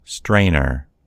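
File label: "strainer", American English; gcompris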